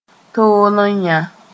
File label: "tool n yã", Mooré